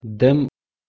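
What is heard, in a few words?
"dem", Kurdish, male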